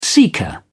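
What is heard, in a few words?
"seeker", British English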